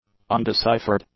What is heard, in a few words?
"undeciphered", English; adjectives